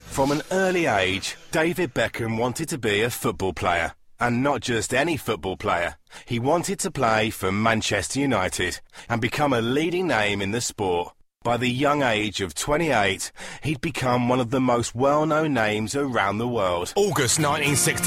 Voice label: Narration

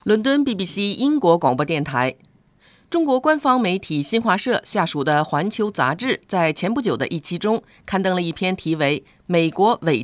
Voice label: Mandarin

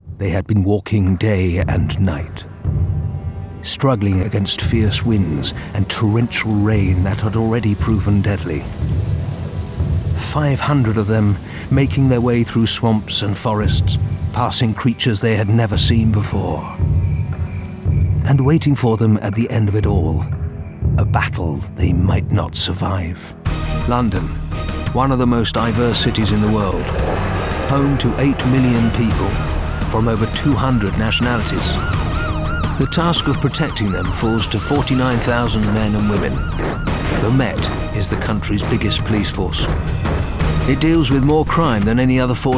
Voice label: Narration

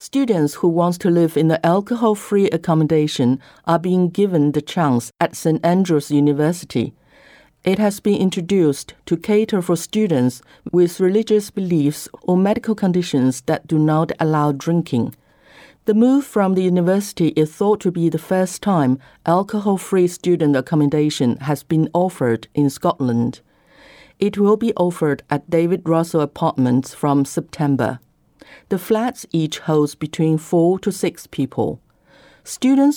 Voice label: ENG

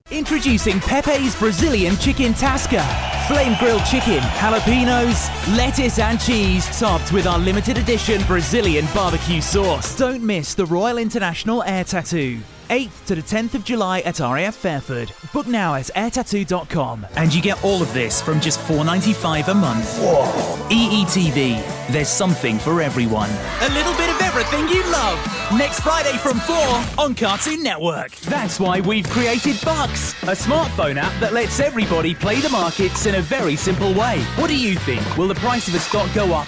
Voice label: commercial

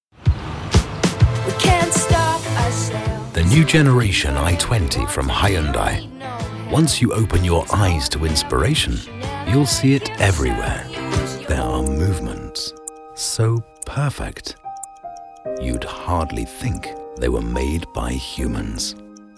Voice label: commercial montage; VO

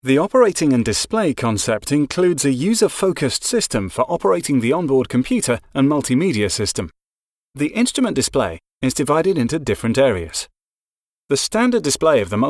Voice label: E Learning

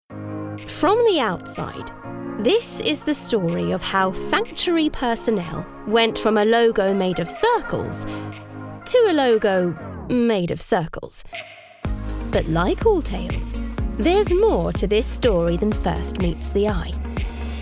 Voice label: Corporate

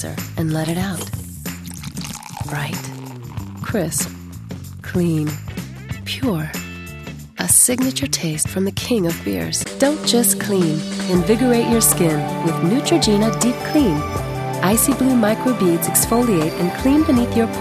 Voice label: American

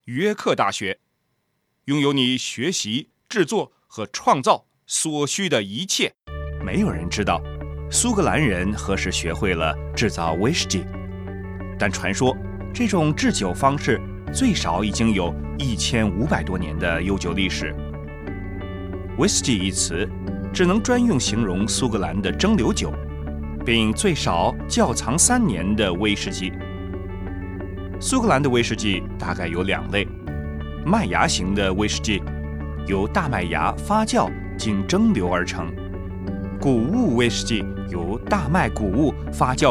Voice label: Mandarin

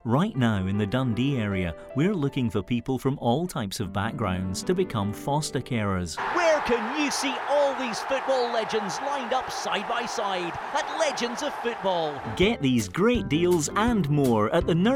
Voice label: Scottish

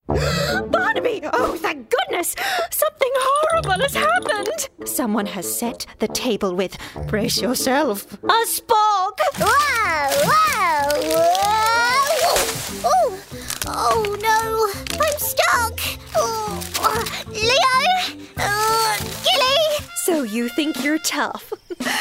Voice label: Animation

